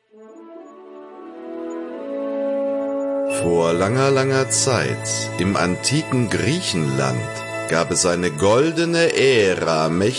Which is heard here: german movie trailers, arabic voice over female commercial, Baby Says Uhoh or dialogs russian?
german movie trailers